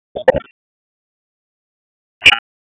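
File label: Raleigh Police Downtown District Tactical, p25; wake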